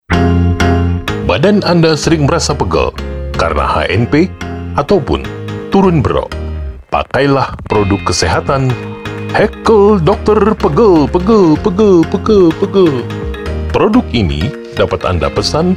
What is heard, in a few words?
INDONESIA CASUAL